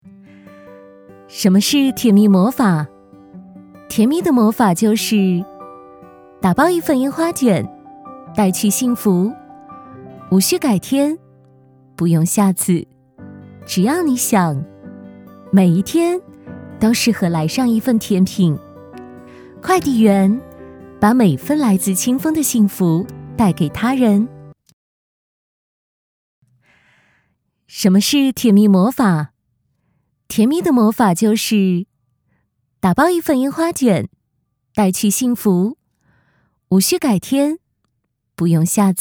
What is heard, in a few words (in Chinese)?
女, 文旅女声样音; 文旅配音; 甜蜜青峰